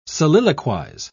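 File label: "soliloquize", English, British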